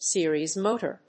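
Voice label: "series motor", English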